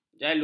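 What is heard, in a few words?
"jalu", Malay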